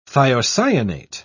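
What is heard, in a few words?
"thiocyanate", British English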